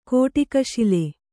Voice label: "ಕೊಡಗಸಾಲೆ", Kannada; koḍagasāle; noun

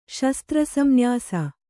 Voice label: "ಶಸ್ತ್ರಸಂನ್ಯಾಸ", kannada

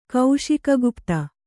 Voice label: "ಕೌಶಿಕಗುಪ್ತ", Kannada

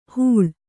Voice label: "ಹೂಳ್", Kannada

